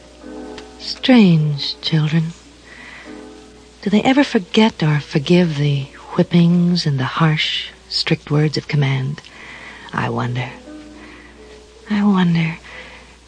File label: strange children whippings, VOCALS and SPOKEN WORD, FEMALE; MISC and SPOKEN WORD